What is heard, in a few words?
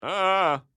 X Emote Negative